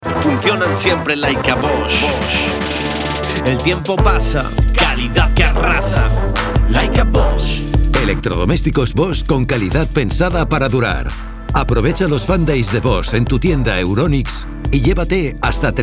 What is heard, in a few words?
radio